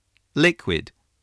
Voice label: "liquid", spelling

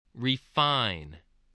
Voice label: "refine", spelling voice

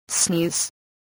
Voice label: "sneeze", spelling

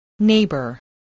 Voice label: "neighbour", voice

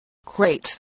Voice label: "crate", voice spelling

